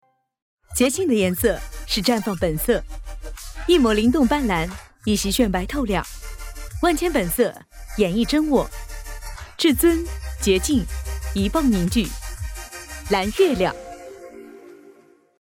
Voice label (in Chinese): 广告 酷炫; 蓝月亮